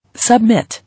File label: "Submit", English